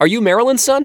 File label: researcher male; lucius ii the prophecy